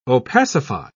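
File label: "opacify", British English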